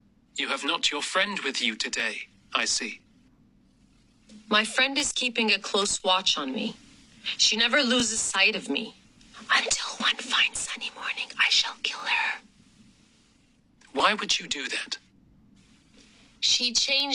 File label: English Audio Book; When we dead awaken